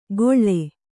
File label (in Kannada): "ಗೊಳ್ಳೆ", Kannada